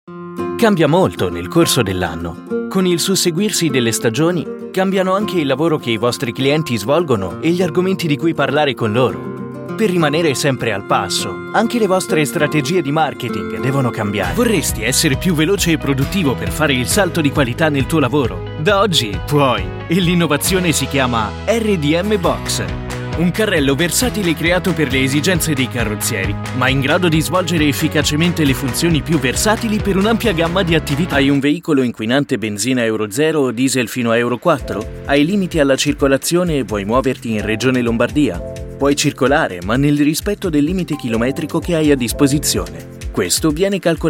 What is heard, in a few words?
italian male corporate